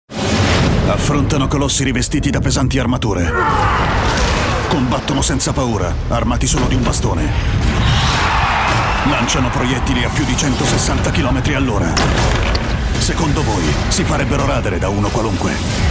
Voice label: male Italian tv comercial; ideasonora